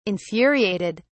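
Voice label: "infuriated", British English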